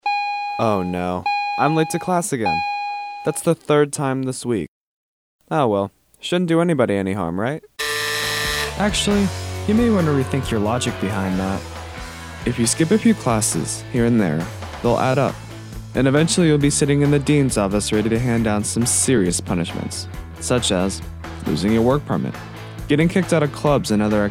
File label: PSA